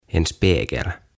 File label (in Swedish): "En Spegel" "Peili"